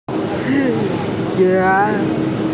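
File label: "shi2jian1", Mandarin Chinese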